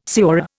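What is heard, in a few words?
"señor", Indian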